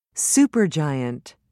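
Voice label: "supergiant", English, uk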